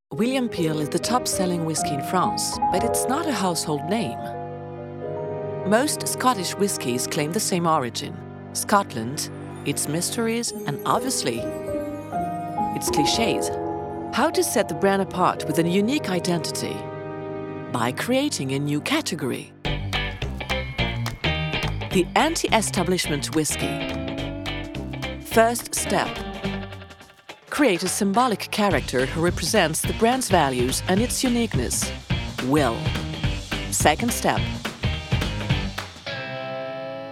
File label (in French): instit GB